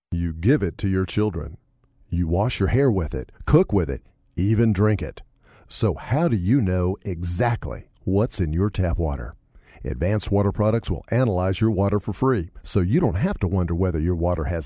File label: Announcer SPOT